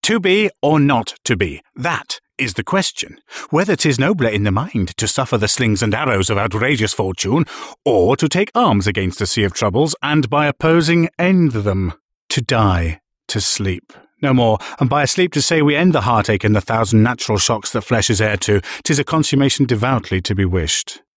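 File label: English UK, Schauspiel Lebendig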